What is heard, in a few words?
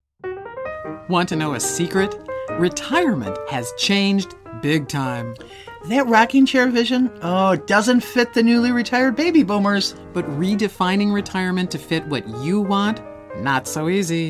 Promo